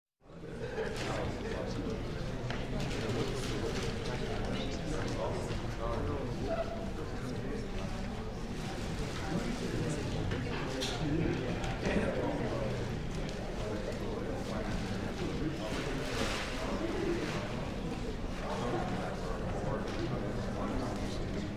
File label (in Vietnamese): Tieng noi chuyen thi tham trong rap chiep phim